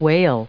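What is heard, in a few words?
"whale", English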